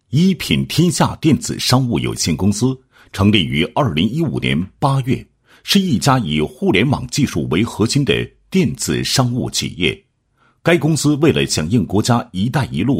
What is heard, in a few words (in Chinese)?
男 仪式解说, 公司开业庆典启动仪式解说